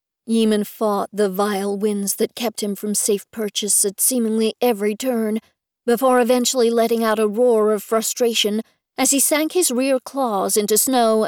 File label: US Accent; Dragon Freedom Ch20